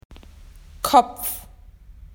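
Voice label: "head", Australian English; PictureDictionary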